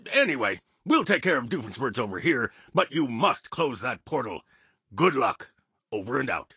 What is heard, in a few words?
English Voice